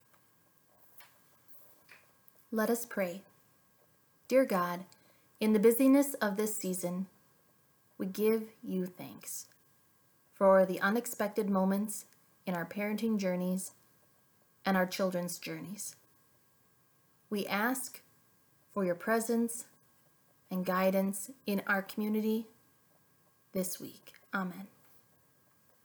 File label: christmas prayer